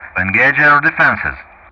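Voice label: "engage air defence", English; Ka-50; Player Messages; DCS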